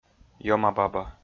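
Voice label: "ёма-баба", Komi, male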